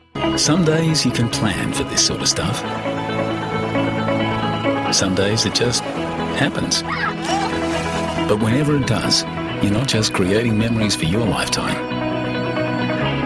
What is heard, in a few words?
australian commercial